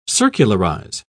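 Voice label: "circularize", British English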